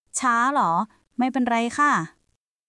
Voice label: Thai, basic thai phrases

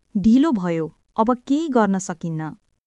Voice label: Nepali, nepali phrases

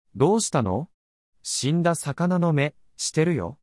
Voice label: anime, japanese anime and internet slang; 10 ways to say how are you

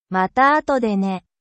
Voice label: japan

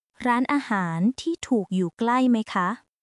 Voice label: Thai, basic thai phrases

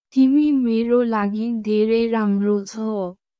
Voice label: Nepali, thank you in nepali

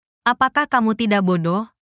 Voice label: indonesia, negative phrases

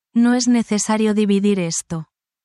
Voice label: spanish, spanish phrases

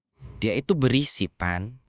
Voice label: indonesia, negative phrases

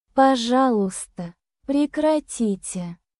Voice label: russian phrases; 100 must know russian phrases for everyday conversation

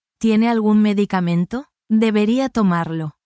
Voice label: spanish, spanish phrases

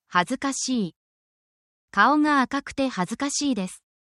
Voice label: feelings in japanese

